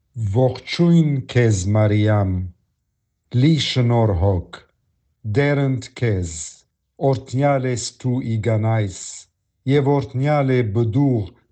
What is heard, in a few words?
hail mary armenian